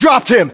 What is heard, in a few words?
inform killfirm infantry, battlechatter, English, US